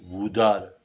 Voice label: "vudar", Romani

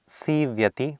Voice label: "siivyati", Sanskrit

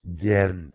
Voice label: "gern", Proto-Germanic

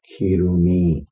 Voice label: "kirumi", Tamil

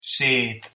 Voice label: "seed", Middle English

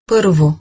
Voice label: "pArvo", Bulgarian

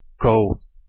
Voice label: "kou", Proto-Germanic

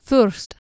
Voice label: "thurst", Anglo Saxon

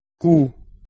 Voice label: "cu" Anglo Saxon

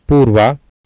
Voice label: "puurva", Sanskrit

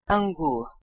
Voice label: "angwu", Proto-Germanic

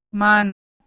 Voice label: "mane", Middle English

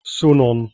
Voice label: "sunnon", Proto Germanic

